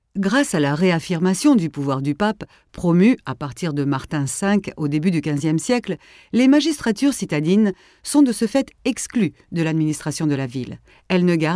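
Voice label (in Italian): francese, Demo Voci Spot Pubblicitari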